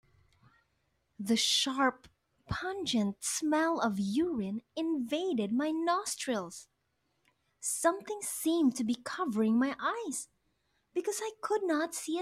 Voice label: Audiobook Sample